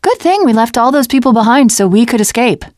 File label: teen girl